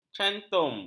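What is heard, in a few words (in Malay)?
"cantum", Malay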